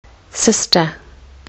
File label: "sister", Kiwi English